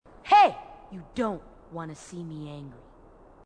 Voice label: female marine, marine tough

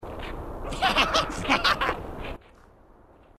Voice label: grunt; h2dialogue; grunt crazy

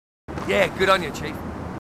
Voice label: marines, aussie; h2dialogue

